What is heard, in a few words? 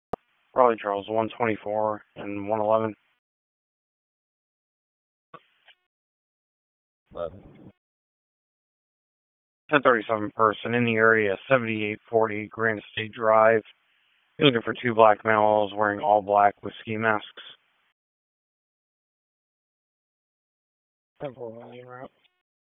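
Police Southwest District Dispatch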